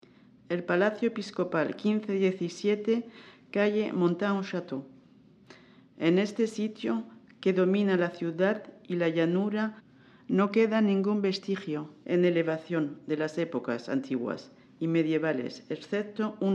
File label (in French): ESPAGNOL; Palais episcopal